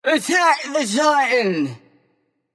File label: vox attack m; smite; bacchus